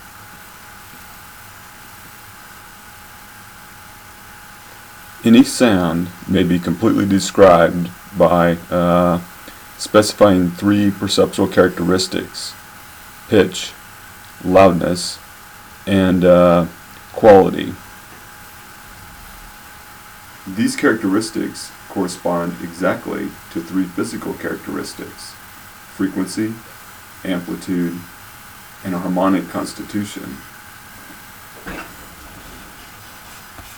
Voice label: Audio With Noise And Ums